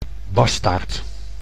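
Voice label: "bastaard", Dutch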